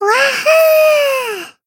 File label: healed jp; loveli